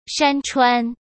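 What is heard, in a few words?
"山川", Mandarin Chinese; chinese-flashcards; hsk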